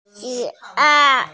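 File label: "xǐ ài", Mandarin Chinese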